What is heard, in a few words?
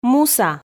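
"муса", Komi, female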